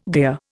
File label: "gear", Indian